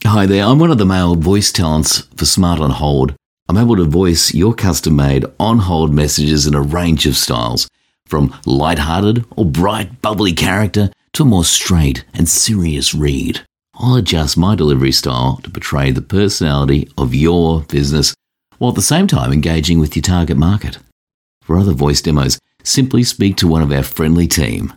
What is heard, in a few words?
MALE; Voice Over artists; Smart On Hold Messages